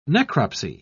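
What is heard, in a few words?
"necropsy", British English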